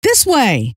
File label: this way, scientist female